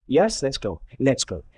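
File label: Voice Acting es M, Spanish, male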